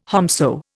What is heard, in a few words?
"whomso", Indian